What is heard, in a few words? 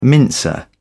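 "mincer", British English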